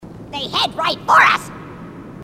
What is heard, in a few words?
grunt crazy; h2dialogue